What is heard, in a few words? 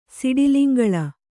"ಸಿಡಿಲಿಂಗಳ", Kannada